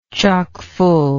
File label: "chock full", English